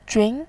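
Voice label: "jun", Mandarin, second tone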